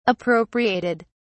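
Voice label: "appropriated", British English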